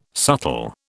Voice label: "subtle", British